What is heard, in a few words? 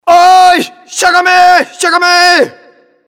jpn sniper